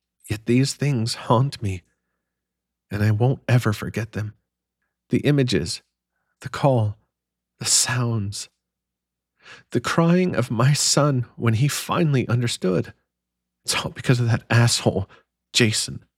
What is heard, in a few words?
Feminine Voice, grieving mother